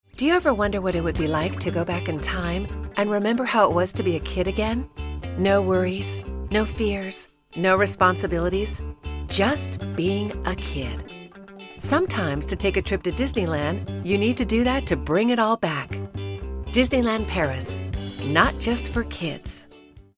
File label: female american english comercial; disney; ideasonora